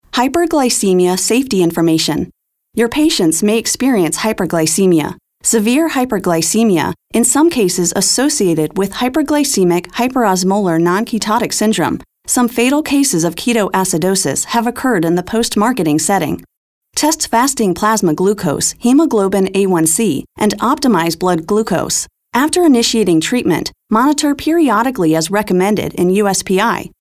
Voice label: Medical Narration

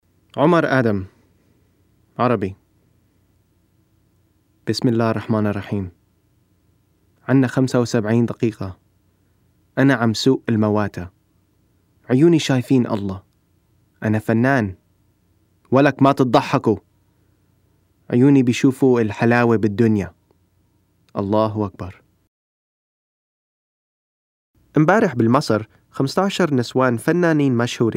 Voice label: Arabic

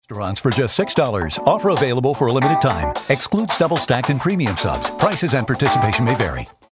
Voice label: announcer

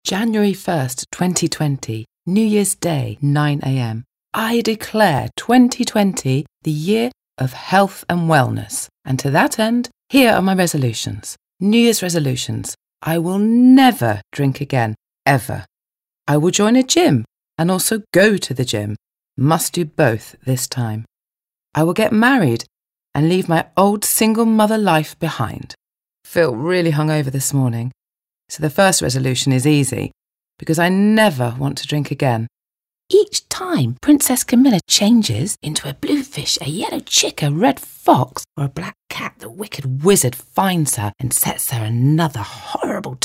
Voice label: Narration

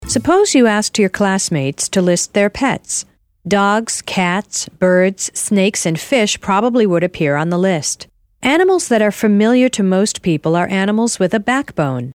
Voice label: Corporate Narration; Animals

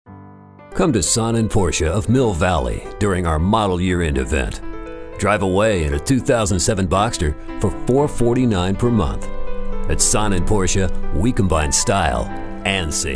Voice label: Smooth

